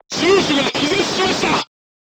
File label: voice message gunlayer contused, crew tanks japanese